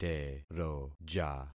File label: "teroja", concat syllables; Affixing experiment